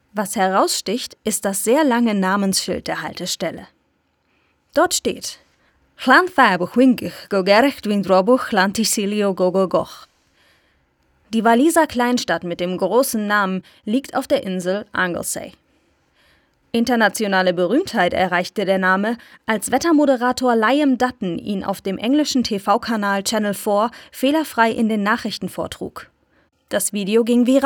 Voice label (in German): Audio Guide Short